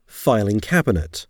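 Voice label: "filing cabinet", English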